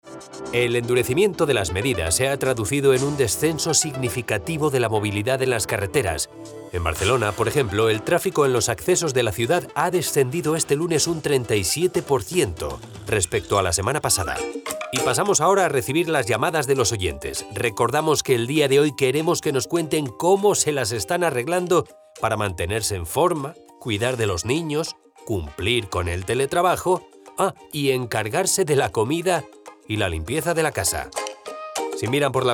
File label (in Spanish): INFORMATIVO